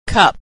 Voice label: "cup", pronunciation